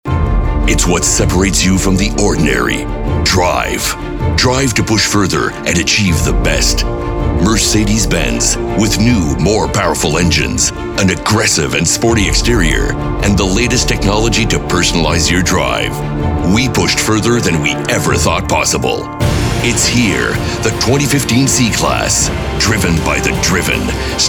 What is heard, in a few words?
commercial